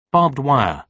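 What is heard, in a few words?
"barbed wire", British English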